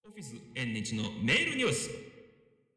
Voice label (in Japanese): フェイドイン